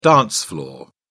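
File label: "dance floor", British English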